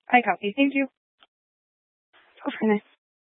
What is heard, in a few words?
Police Department Dispatch; Grand Junction Police Department Dispatch